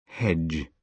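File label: "hedge", British English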